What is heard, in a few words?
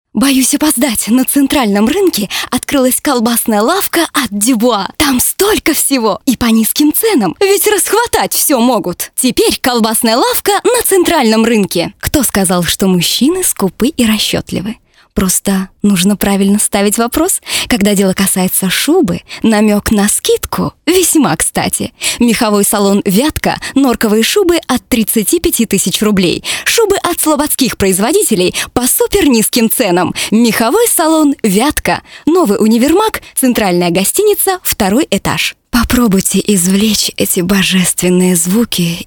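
Russian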